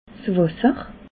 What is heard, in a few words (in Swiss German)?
"wasser", alsace